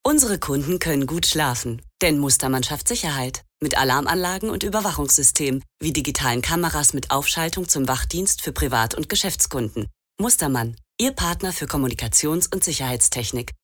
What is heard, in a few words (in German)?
Werbung; Möbel